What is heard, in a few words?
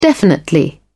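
"definitely", British English, dictionary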